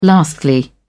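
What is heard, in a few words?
"lastly", British English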